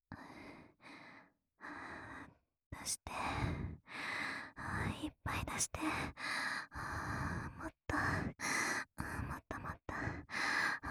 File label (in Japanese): 囁き淫語連呼; SEなし